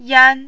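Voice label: "yan1", mandarin, high level tone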